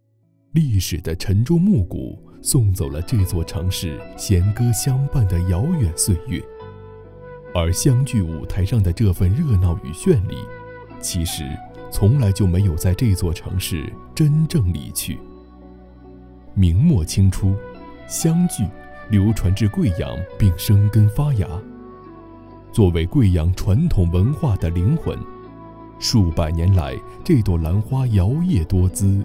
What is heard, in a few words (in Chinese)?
男 解说 湘剧; 桂阳